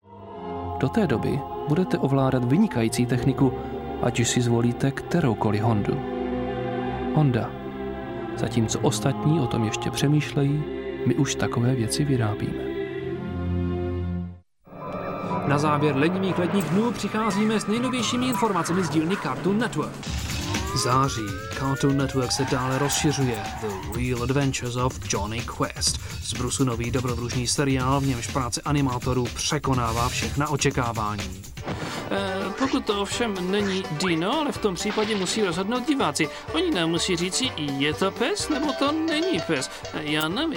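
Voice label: Czech commercial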